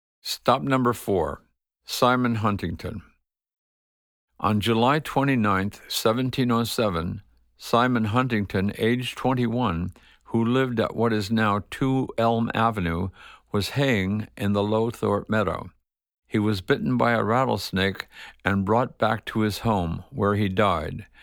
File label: Narration